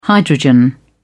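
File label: "hydrogen", British English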